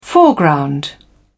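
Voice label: "foreground", British English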